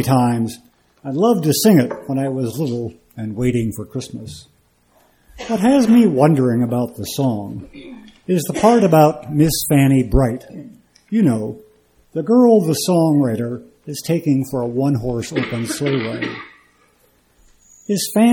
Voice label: Poem